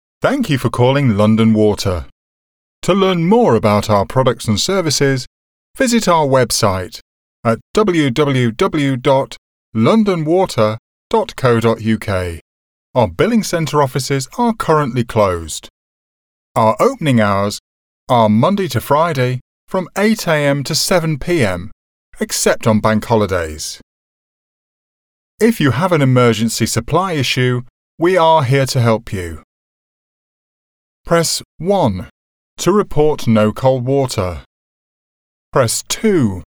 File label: IVR; London Water